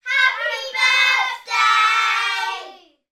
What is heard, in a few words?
human group children x6 uk aged 3 to 8 shout happy birthday